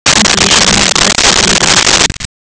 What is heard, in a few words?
speech nav; google android apps maps